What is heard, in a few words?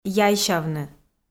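"яйсявны", Komi, female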